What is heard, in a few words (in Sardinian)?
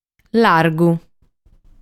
"Largu", sardu